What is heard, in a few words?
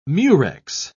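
"murex", British English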